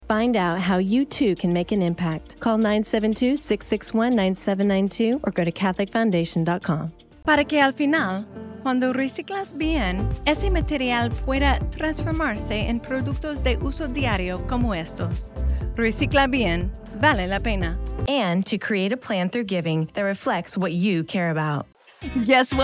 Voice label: Billingual Commercial w Music

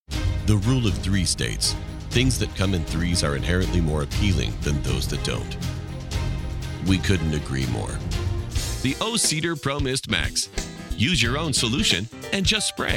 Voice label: commercials

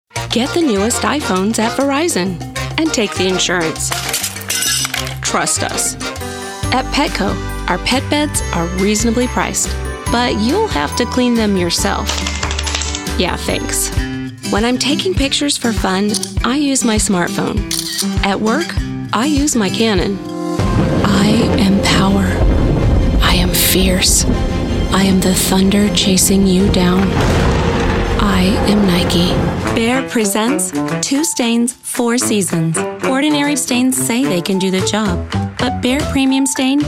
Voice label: Commercial Demo

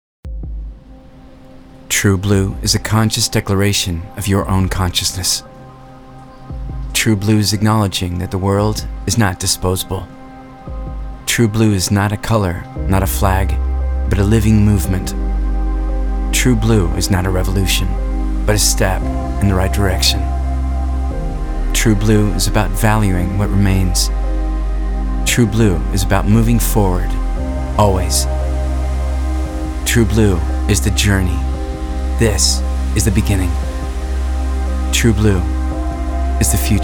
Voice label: Eng VO, JEANS Ad (casual)

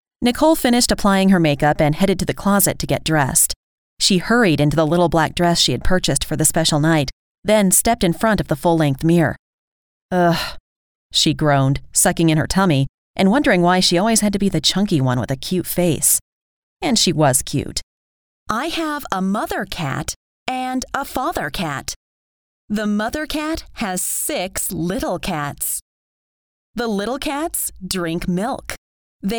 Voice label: Audio Book Demo

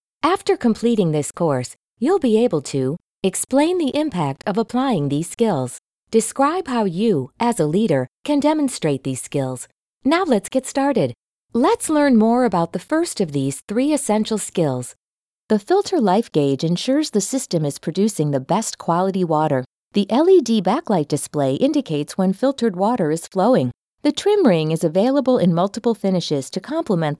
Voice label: E-learning

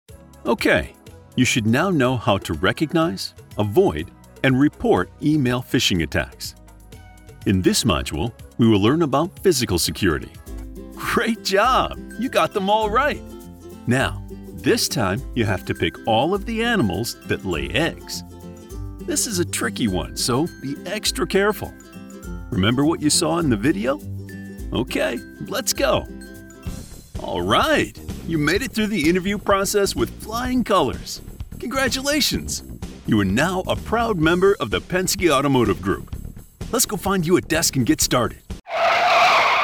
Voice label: e-learning; E-Learning Demo

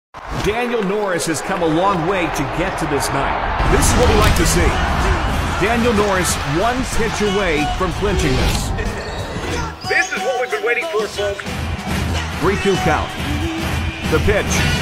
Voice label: Sports Play by Play

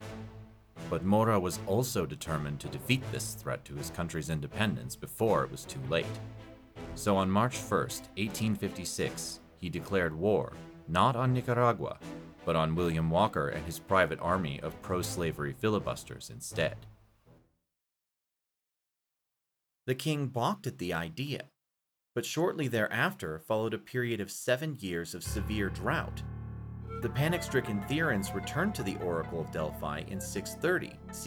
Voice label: History Doc Narration

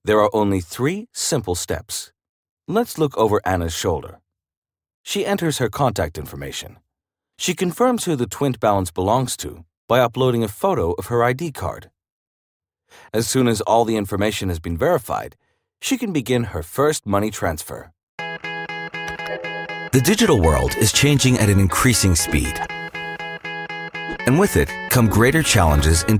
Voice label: Transatlantic

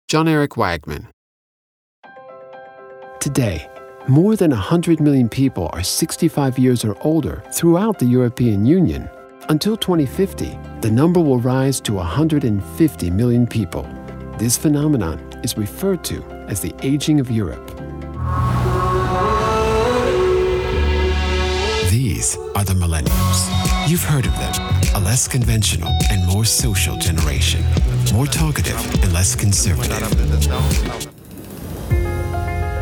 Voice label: corporate

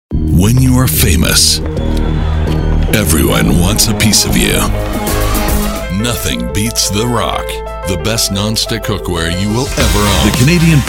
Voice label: TV Ads; Demo Reel TV Ads

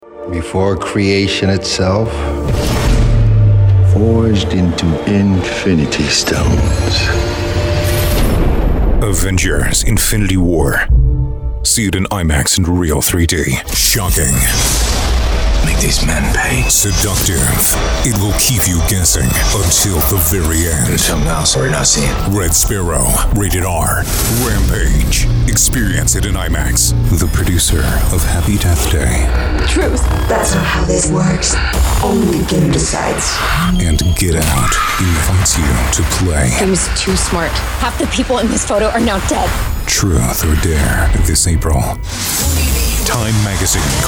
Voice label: Modern Trailer Voice, Trailer Dark

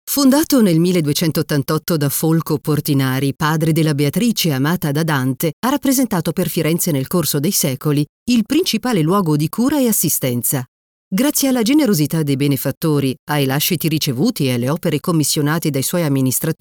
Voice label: AUDIOGUIDE

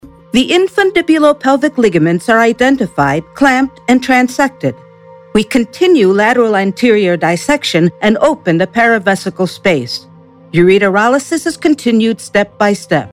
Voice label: medical instructional surgical technique

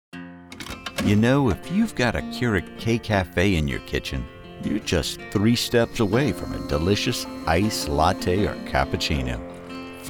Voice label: COMMERCIAL MONO

